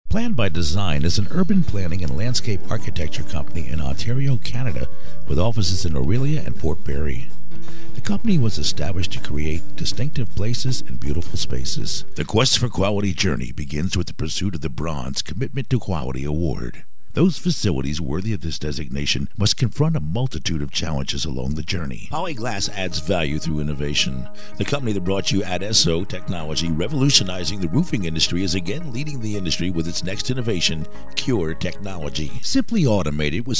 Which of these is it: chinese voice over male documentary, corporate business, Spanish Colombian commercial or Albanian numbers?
corporate business